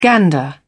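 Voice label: "gander", English, British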